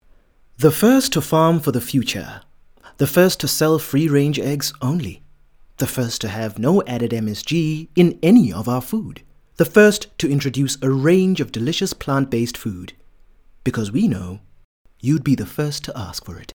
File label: English Soft Sell; OSM Talent